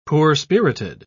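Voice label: "poor-spirited", British English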